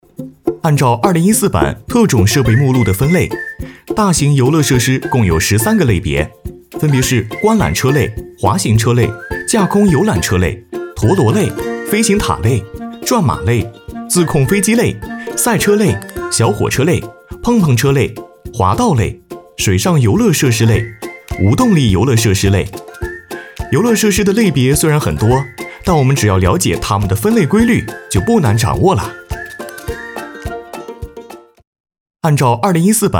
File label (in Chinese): 男 年轻活力; 飞碟说MG